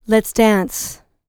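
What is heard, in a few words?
licks, female spoken; ILIO; Vocal Planet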